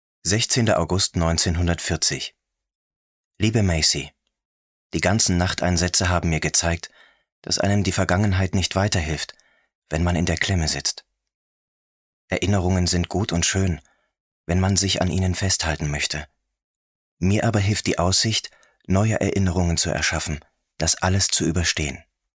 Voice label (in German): hoerbuch; eine liebe ueber dem meer